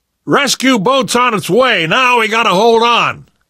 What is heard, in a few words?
radio used boat, survivor; voice; source engine; left 4 dead 2; namvet